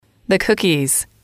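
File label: the cookies, English, female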